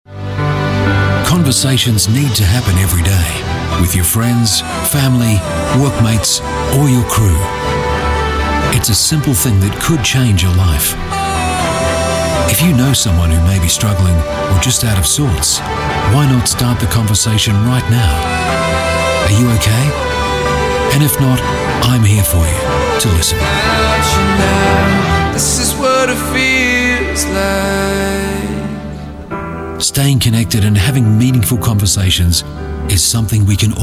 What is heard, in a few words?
english british voice over male commercial